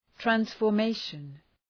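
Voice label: "transformation", English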